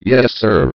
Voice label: yes sir, robot

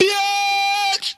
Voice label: "biotch", expletives

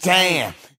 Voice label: "damn", expletives

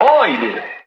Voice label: "i did", cartoon; Voice

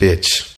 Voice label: "bitch", expletives

